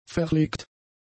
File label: "verlegt", German; adjectives